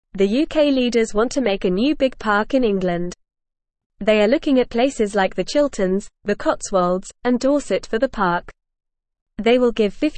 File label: English Newsroom Lower Intermediate FAST Reading; UK Leaders Plan New Park and More Money for Existin